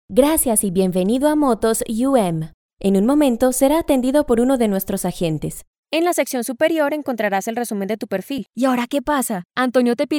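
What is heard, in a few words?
Spanish Latin America female voice over, upbeat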